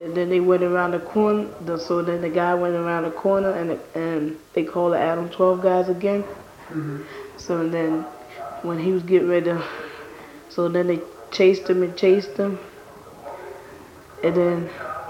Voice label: Conversation with 14 year old black male New York New York, american english dialect